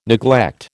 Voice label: "neglect", American